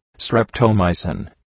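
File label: "streptomycin", English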